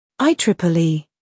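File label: "scandal", American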